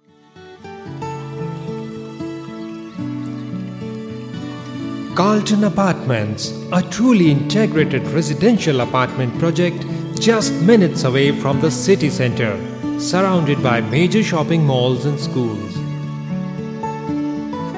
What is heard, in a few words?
eng corporate